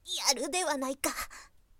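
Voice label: girl, "yarudehanaika"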